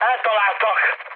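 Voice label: Hungarian aircraft destroyed mood high, speech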